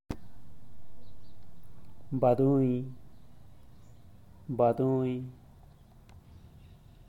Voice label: baduing, zapoteco teotitlan